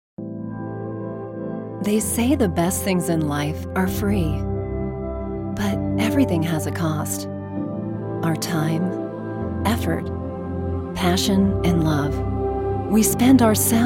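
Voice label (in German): US English, Sanft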